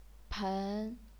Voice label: "pen2", chinese pinyin